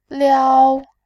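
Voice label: "liao1", Mandarin Chinese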